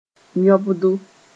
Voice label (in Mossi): "yõbdo", moore